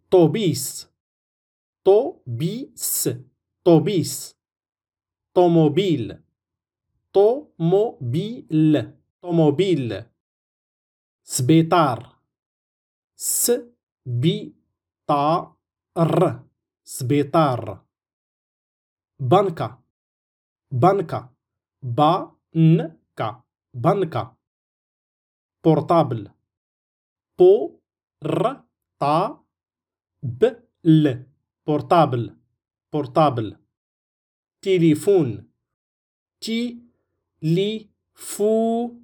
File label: french language vocabulary in moroccan arabic darija